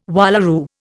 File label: "wallaroo", Indian